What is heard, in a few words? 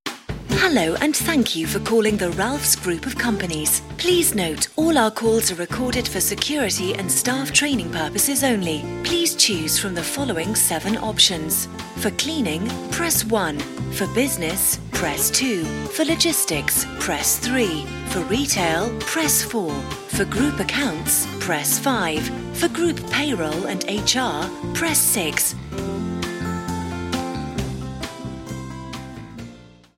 On Hold; Voice-over demos; on hold voices